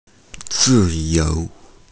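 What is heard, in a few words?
"zi4you2", Mandarin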